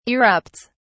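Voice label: "erupts", British English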